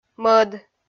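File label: "мӧд", Komi-Zyrian, female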